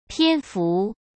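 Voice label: "篇幅", Mandarin Chinese; hsk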